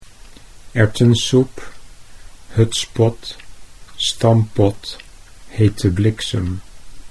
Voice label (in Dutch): "erwtensoep", Dutch